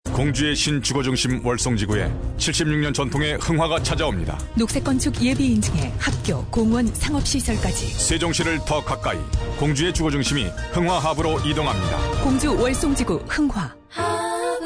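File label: Korean, female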